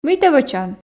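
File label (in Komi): Komi, мый тэ вӧчан, female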